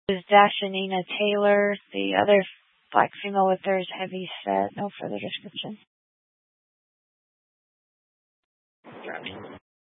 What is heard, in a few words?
Dispatch, scanner